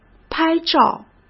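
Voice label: "拍照" Mandarin Chinese; hsk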